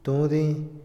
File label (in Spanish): liso, Otomí, hombre; Percepción; Vocabulary; INALI